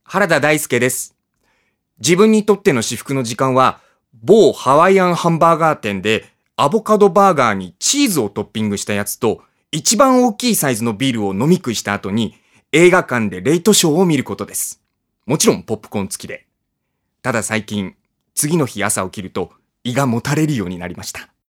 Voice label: freetalk, male voice

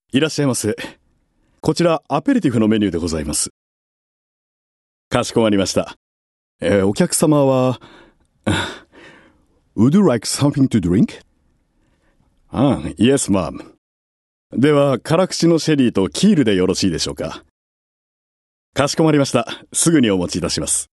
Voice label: male voice

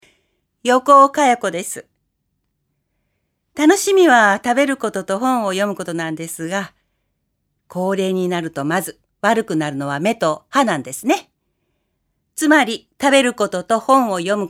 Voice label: female voice freetalk

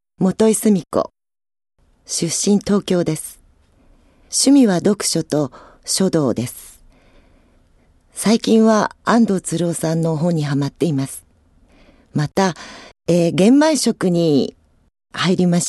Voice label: free talk, female voice

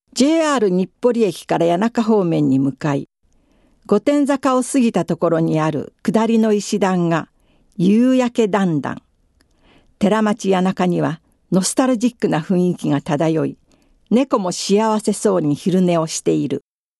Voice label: female voice

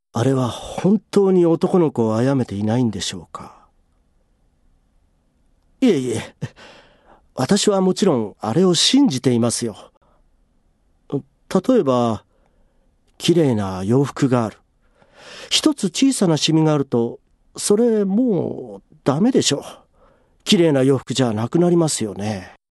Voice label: male voice

